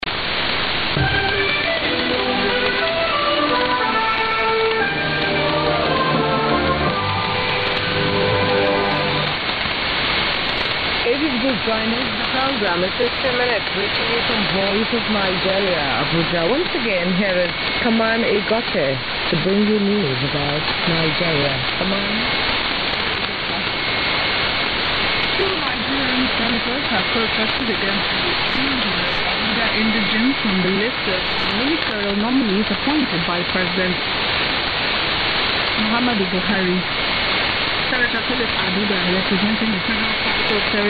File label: 11770kHz; VoiceOfNigeria